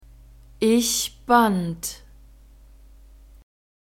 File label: "ich band", German; verbs past tense irregular